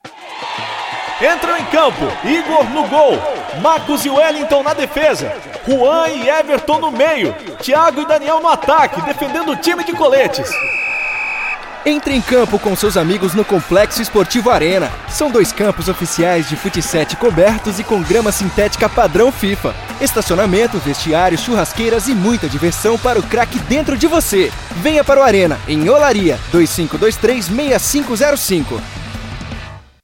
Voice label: SPOT; ARENA ESCOLA DE FUTEBOL ENTRE EM CAMPO